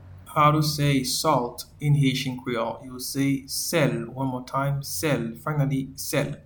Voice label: Salt in Haitian Creole Sel

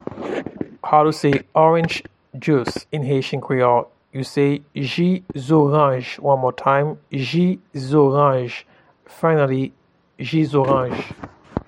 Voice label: Orange juice in Haitian Creole, Ji zoranj

